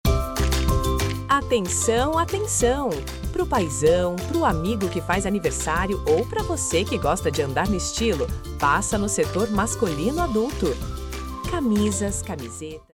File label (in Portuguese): Setor Masculino Adulto, vinheta comercial voz; loja de roupas